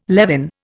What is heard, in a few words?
"levin", Indian